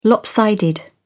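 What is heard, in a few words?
"lopsided", British English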